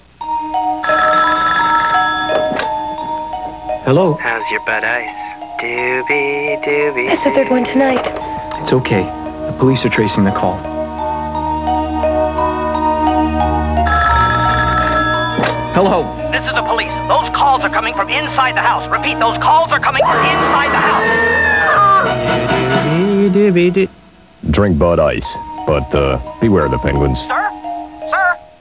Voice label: commercial